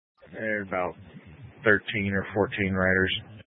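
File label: Incident Tac 3, Scanner audio recording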